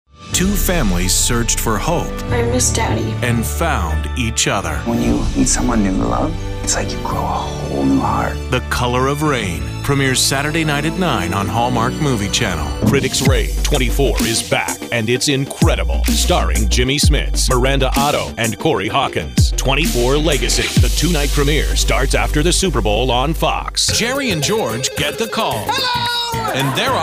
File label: american tv promo